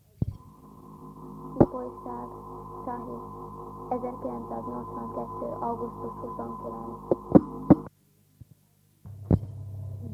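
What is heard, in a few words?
dialect collections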